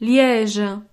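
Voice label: "liege", French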